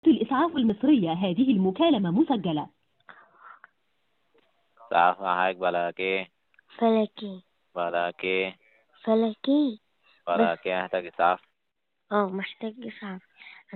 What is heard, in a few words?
Call recording Emergency number